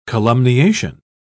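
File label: "columniation", British English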